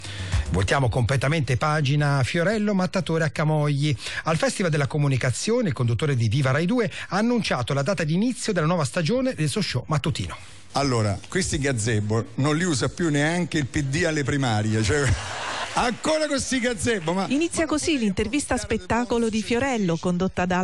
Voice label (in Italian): Radio; Rai Radiodue; RASSEGNA RADIO 2023